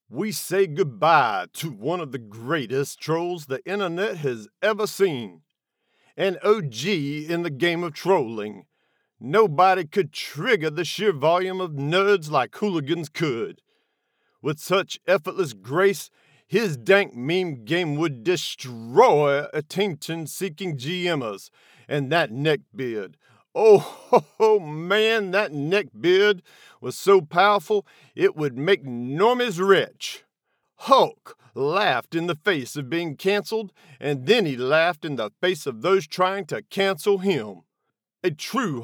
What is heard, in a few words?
Southern pastor satire funeral